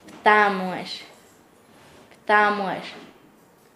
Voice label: "pareja", Nasa Yuwe; paez